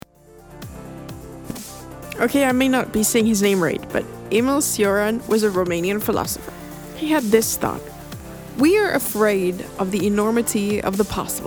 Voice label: horprobe - american english, American English